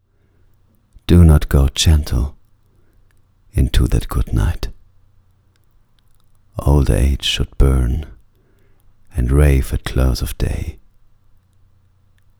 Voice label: English Poem